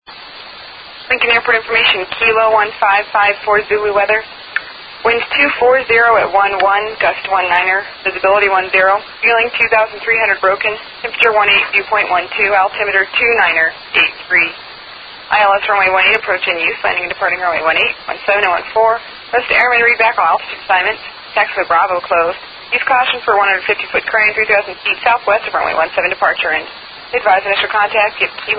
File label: ATIS